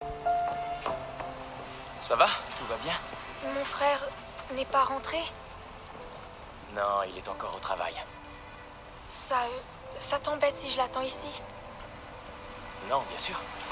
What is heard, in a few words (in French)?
doublage